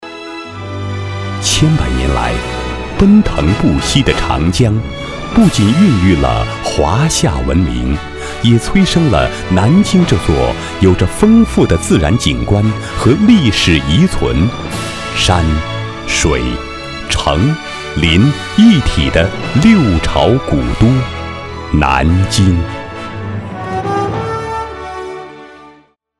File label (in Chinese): 男 专题 城市专题